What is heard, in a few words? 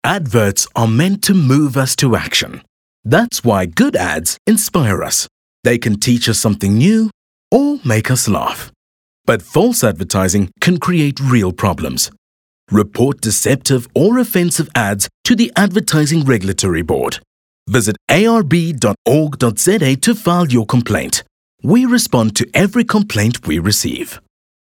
Radio Straight Message